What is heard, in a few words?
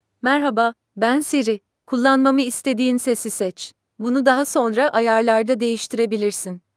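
Turkish, Turkey; iOS 26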